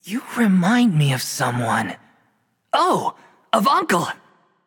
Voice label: taunt directed; smite; susano; guanyu

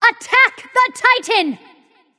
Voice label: VOX Attack M; smite; jingwei

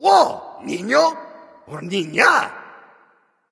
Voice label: taunt directed; nezha

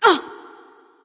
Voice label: freya frustration

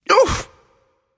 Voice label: nv ouch; smite; achilles

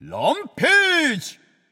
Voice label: alert notify kill streak, japanese; caster

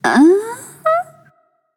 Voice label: confused; smite; pele

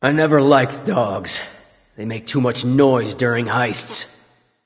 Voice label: taunt directed; fenrir; smite; loki

